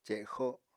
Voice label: "cexo", Apatani